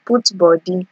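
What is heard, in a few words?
"put body", Nigerian Pidgin, female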